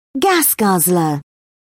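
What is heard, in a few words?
"gas guzzler", British English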